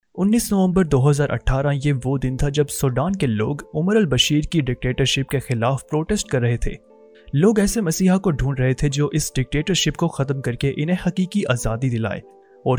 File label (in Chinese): 乌尔都语男声